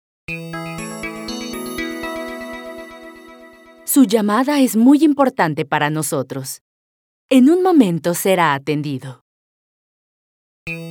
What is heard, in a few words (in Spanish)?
Mensaje de Espera; audios telefonia mumuso